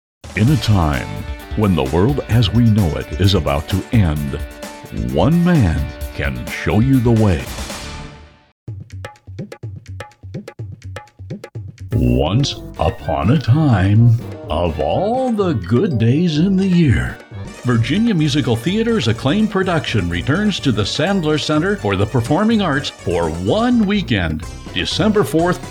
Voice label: Movie Trailers